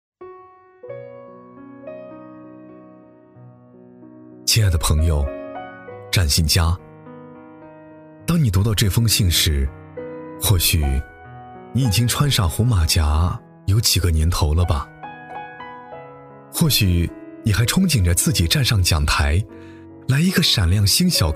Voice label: 男 走心 低沉讲述; 写给长电青年的一封信

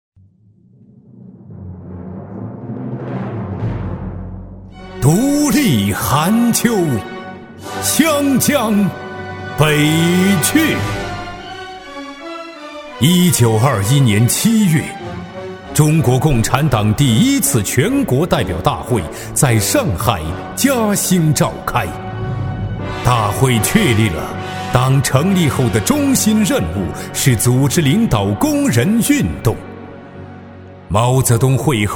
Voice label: 男 舞台剧 红军 舞台剧 气势; 中英双语

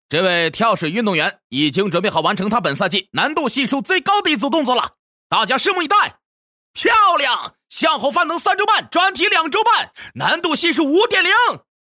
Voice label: 体育赛事解说男国C6样音, 轻松活泼

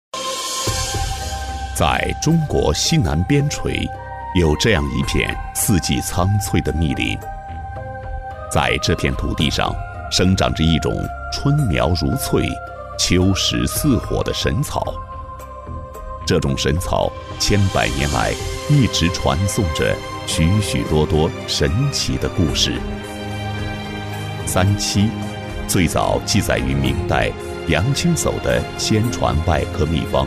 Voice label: 男 科普纪录片 讲述感; 三七; 大气激情 自然诉说; 男138-科普纪录片《三七》-讲述感

